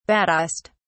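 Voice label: "baddest", British English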